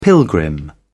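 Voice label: "pilgrim", British English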